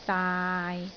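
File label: "daai6", Cantonese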